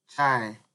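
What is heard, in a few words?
"chai", Nigerian Pidgin, male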